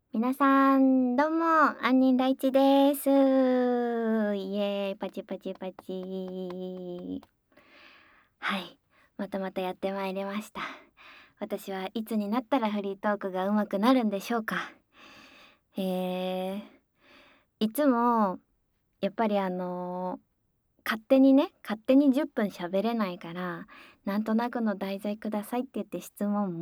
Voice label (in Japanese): フリートーク